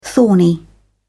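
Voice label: "thorny", British English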